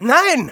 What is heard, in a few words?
Scout no, de; "Nein!"